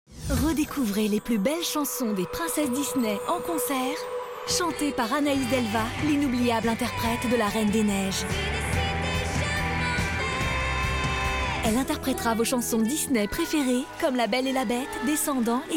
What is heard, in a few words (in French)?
Voix Off Pub TV; Disney Channel